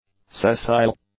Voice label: "sessile", English; adjectives

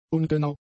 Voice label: "ungenau", German; adjectives

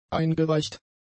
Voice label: "eingeweicht", German; adjectives

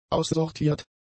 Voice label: "aussortiert", German; adjectives

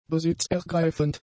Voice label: "besitzergreifend", german; adjectives

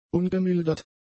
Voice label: "ungemildert", German; adjectives

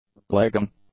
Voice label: "legging", English; nouns

